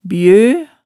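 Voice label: "bjø", Hemsedal dialect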